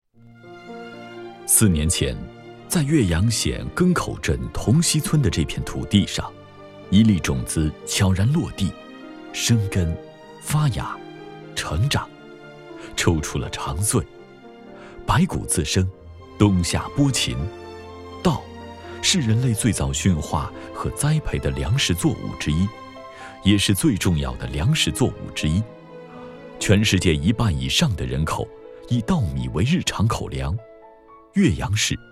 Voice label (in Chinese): 男 农业专题